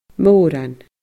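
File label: "moran", Scottish Gaelic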